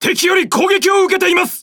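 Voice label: Speech Pilots Bomber Under Attack, Japanese; World Of Warships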